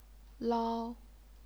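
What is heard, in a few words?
"lao", Chinese, first tone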